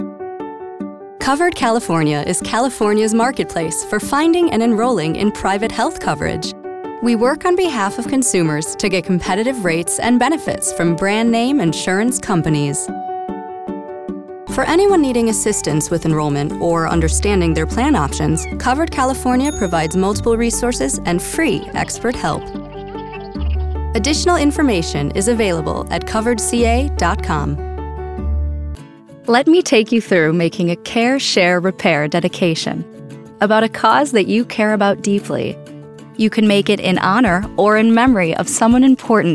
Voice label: Explainer Video